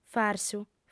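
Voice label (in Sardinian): "farsu", female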